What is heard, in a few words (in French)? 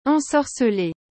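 "ensorceler", French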